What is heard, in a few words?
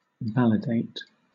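"validate", British English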